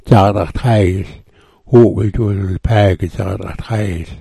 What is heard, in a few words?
"dza_g_a_daxtaayl_", smalgyax